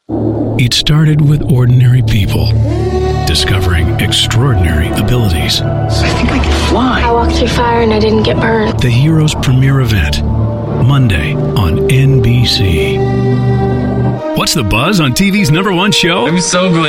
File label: US English Promos